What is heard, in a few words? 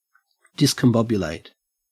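"discombobulate", Australian English; pronunciations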